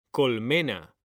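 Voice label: "COLMENA", Spanish; mekanta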